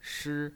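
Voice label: "shi", Mandarin Chinese pinyin, first tone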